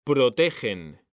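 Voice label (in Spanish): "PROTEGEN", Spanish; mekanta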